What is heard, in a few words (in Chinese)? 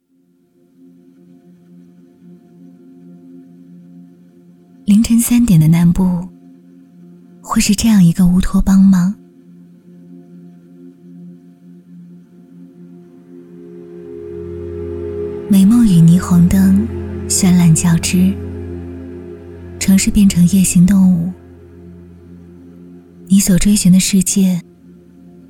女 形象片; 南部商务区; 梦游奇遇记